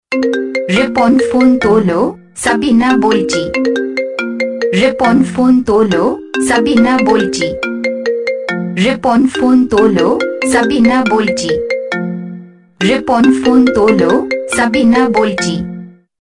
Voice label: phone tolo; Ripon